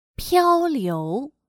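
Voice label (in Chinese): "漂流", Chinese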